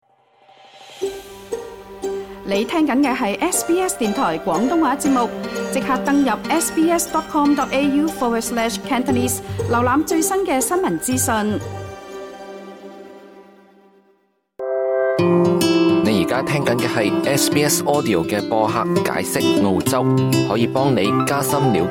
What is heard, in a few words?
cantonese; 一文教你如何安裝太陽能; SBS Chinese